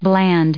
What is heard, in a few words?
"bland", en us